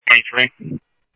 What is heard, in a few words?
Police, scanner audio trunk; H-Scottsdale